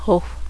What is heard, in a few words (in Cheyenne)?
animal, Cheyenne